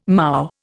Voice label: "moue", Indian